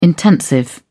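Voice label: "intensive", British English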